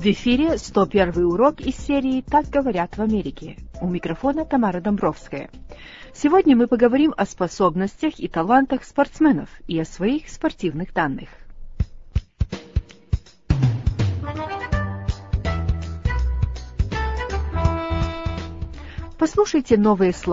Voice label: russian english